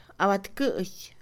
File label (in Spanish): abajo, Mixe, Mujer; INALI